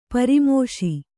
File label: "ಪರಾಮೋಶಿ", kannada